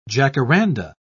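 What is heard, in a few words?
"jacaranda", British English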